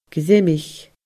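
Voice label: "greimich", Scottish Gaelic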